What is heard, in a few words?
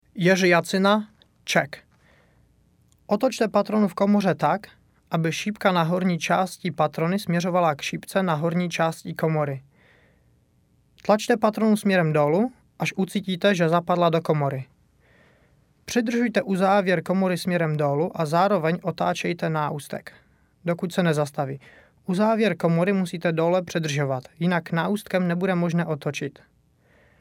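Czechoslovakian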